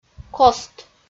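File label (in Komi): "кост", Komi-Zyrian, female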